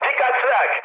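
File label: damaged aa, mood high, Turkish; speech; War Thunder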